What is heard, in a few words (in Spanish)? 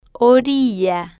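"orilla", spanish, female, slow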